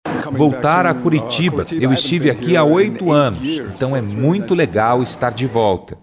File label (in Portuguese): ENTREVISTA EX GUITARRISTA